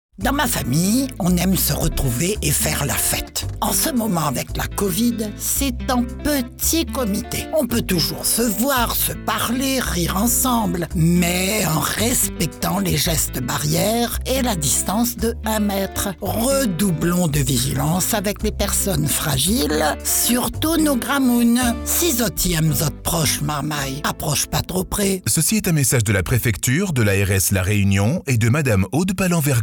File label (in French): SPOT RADIO CREOLE; ARS; COVID